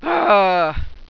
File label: i broke a nail, pain sultry